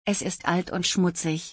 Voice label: "es ist alt und schmutzig", German